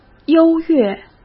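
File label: "优越", Mandarin Chinese; chinese-flashcards; hsk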